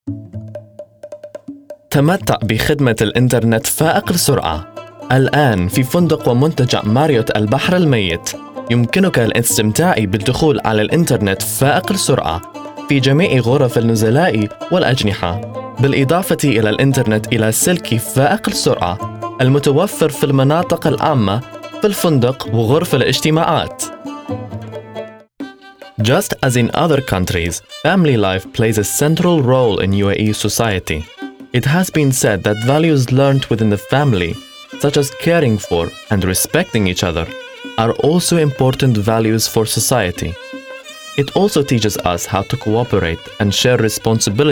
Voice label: Arabic VO

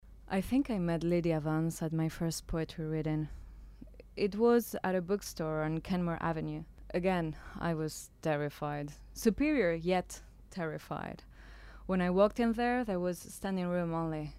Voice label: ENG Narrative

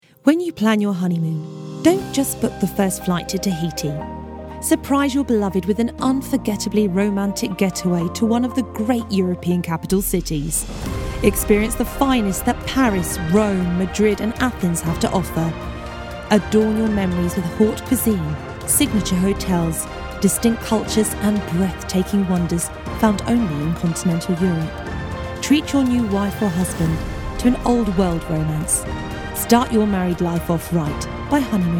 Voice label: Commercial

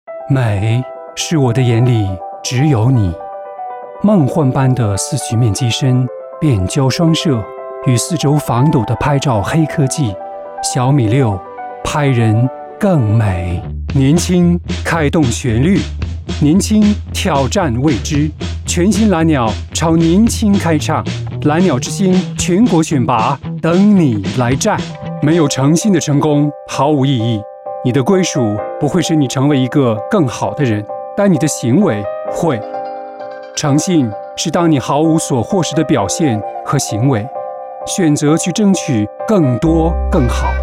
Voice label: Commercial Chinese

